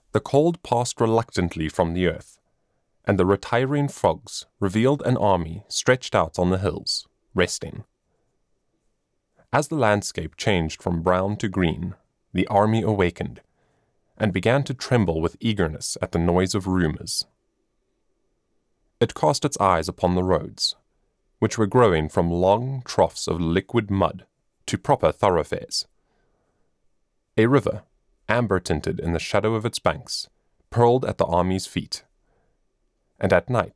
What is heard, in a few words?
Audiobook; The Red Badge of Courage; male voice actor and audiobook narrator based in KwaZulu-Natal, South Afri